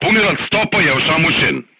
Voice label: voice message charger contused, crew tanks serbian